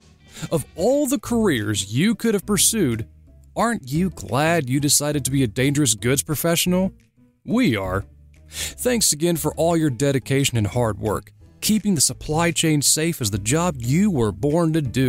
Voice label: english american corporate videos

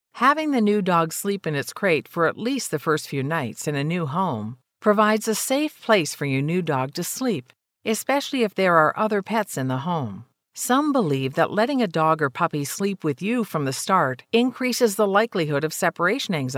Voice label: english canadian audiobooks

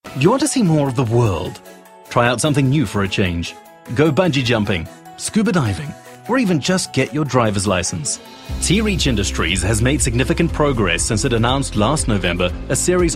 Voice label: english south african commercial; África do Sul; Inglês (sul-africano)

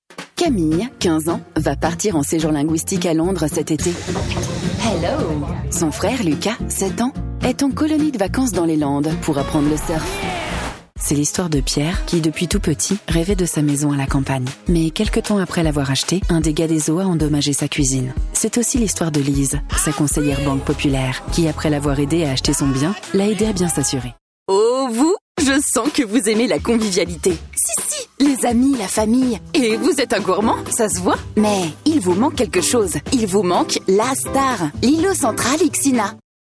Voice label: french radio imaging; French voice-over actress

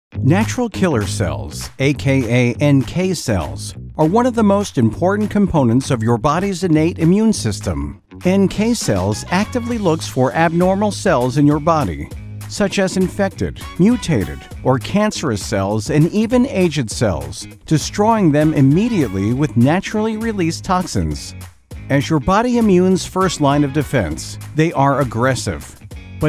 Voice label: english american; professional American voice talent

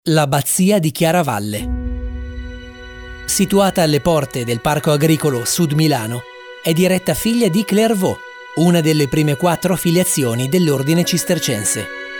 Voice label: italian documentaries

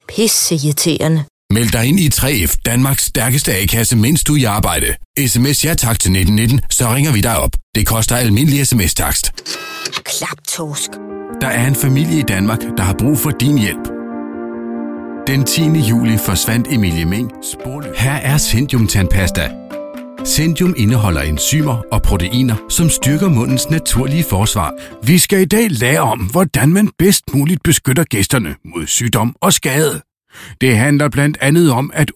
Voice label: danish commercial

